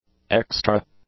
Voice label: "extra", english; adjectives